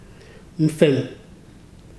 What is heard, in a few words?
"cockroach", Ibibio